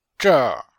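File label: "zher4", Chinese; Cours-de-Chinois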